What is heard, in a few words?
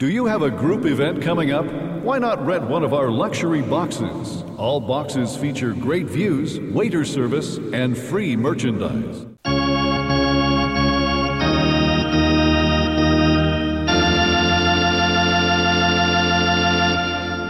Announcer; NHL 2003